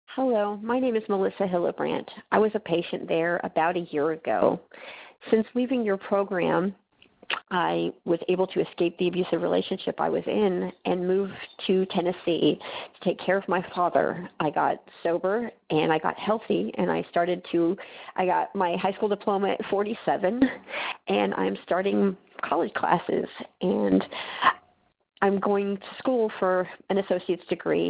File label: Voice Message